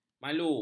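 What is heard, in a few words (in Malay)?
"malu", Malay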